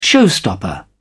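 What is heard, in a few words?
"showstopper", British English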